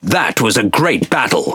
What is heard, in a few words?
Speech Quick Commands Good Game, EN GB; World Of Warships